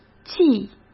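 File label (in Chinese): "季", Mandarin Chinese; hsk; chinese-flashcards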